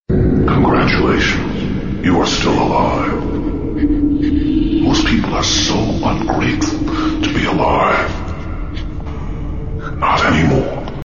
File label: Horror Voice